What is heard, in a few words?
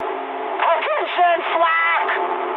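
damaged anti-aircraft mood high, speech English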